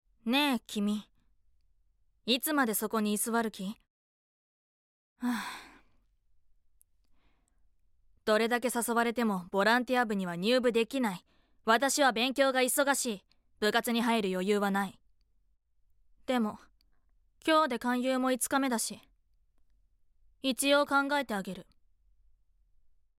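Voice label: voice sample, cool woman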